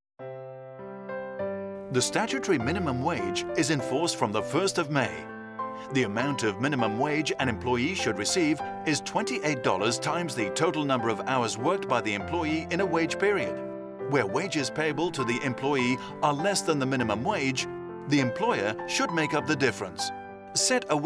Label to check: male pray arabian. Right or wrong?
Wrong